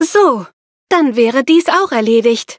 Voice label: victory, de; cookierunwiki